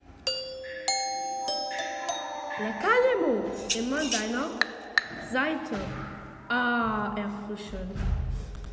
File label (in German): Radio Werbung